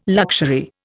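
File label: "luxury", Indian English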